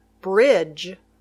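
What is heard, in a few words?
"bridge", English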